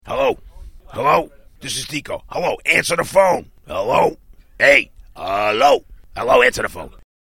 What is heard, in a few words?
Hello Answer The Phone, American, Ringtone